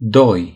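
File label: "two", Romanian; gcompris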